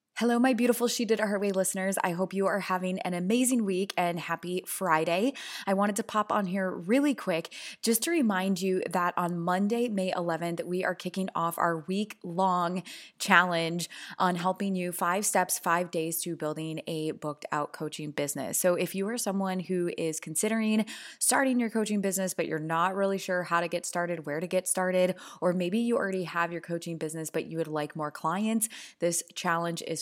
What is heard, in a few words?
commercial